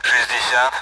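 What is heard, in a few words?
Speech Sound Russian Common AWACS Numbers; DCS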